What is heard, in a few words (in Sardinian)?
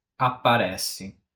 "Aparessi", sardu